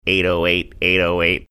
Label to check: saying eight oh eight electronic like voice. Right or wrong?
Right